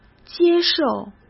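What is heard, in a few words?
"接受", Mandarin Chinese; hsk; chinese-flashcards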